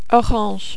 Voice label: "orange", French

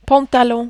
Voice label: "pantalons", French

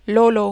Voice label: "lolo", French

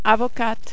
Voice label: "avocate", French

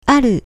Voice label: "aru", Japanese

